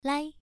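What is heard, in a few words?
"lai", Mandarin Chinese syllable, first tone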